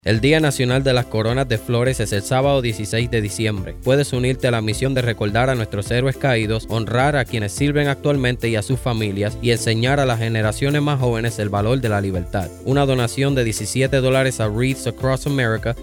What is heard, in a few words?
Spanish version